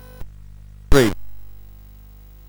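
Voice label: Bouncy Balls speech, MOS 8580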